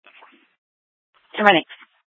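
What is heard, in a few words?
police dispatch, Scottsdale PD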